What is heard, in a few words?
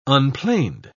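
"unplaned", British English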